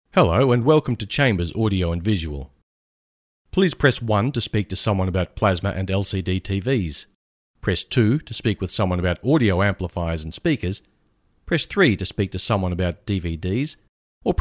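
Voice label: English Australian New Zealand IVR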